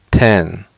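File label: "ten", English; fonetica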